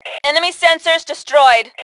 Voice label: Enemy Sensors, Female; T2 Female 01